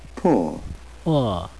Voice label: poor uk